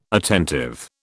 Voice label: "attentive", British